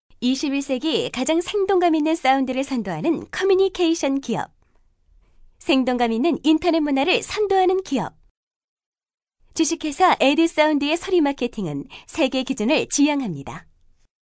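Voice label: Korean, female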